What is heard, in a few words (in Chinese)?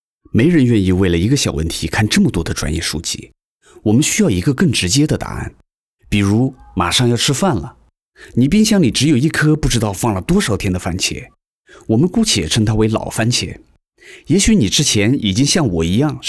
磁性男声